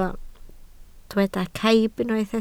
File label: "caib", Welsh